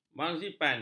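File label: "marzipan", Malay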